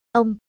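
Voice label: "ong", Vietnamese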